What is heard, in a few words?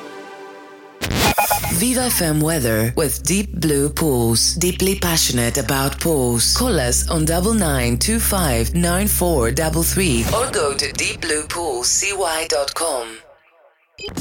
Greek female voice, continuity and radio